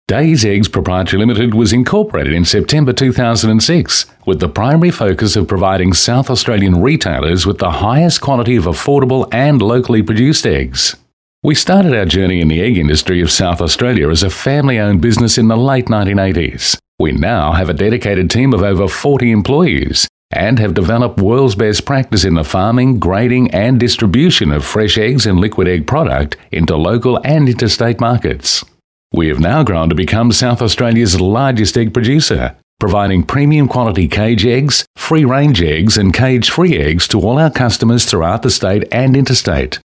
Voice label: male talent corporate